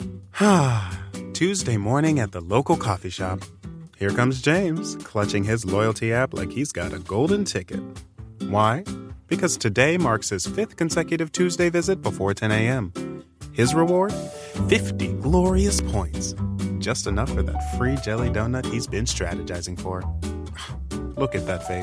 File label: Jolly, funny and conversational